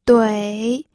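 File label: "dui", pinyin, third tone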